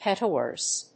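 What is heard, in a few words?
"Petaurus", English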